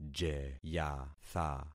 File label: "jeyatha", concat syllables; Affixing experiment